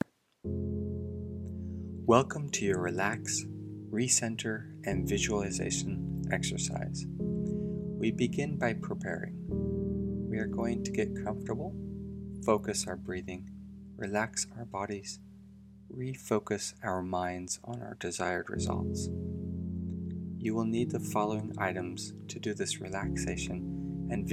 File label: Relaxation Exercise